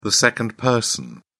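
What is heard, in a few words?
"second person", British English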